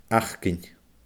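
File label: "acainn", Scottish Gaelic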